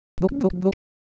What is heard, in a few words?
Semai, ideophones